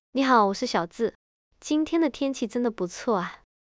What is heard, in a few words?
cosyvoice stream, 本地TTS; CosyVoice2.0; 小智AI服务端; 低延迟小智AI服务端搭建-本地TTS篇：CosyVoice流式推理